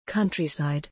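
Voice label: "Countryside", English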